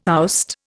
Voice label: "oust", Indian